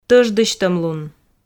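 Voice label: "тӧждысьтӧмлун", Komi, female; audio dict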